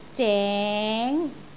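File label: "zeng2", Cantonese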